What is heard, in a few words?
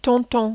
"tonton", French